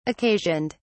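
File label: "occasioned", British English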